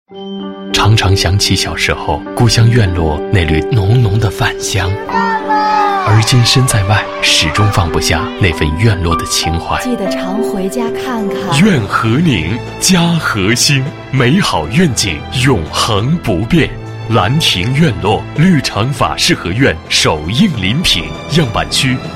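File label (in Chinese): 广告地产自然品质叙述; 绿城蓝庭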